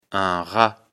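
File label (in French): "rat", French; afrikalan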